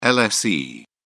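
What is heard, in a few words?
"lse", British English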